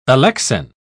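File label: "alexin", British English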